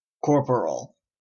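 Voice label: corporal, Canadian English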